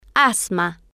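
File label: "asma", Spanish, female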